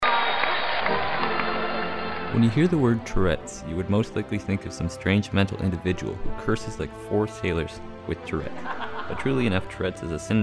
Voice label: Podcast